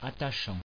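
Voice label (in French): "attachant" French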